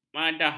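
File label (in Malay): "madah", Malay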